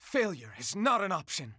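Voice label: voice sample, English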